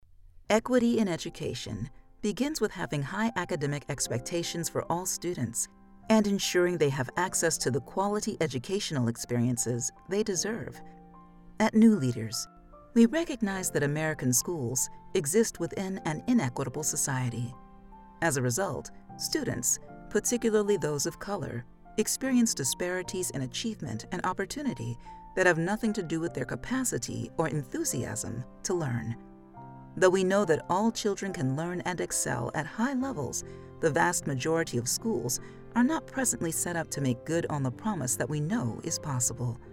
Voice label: elearning sample